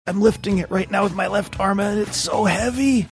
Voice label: straining; i'm lifting it right now with my left arm